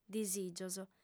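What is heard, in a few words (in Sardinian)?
"disìgios", female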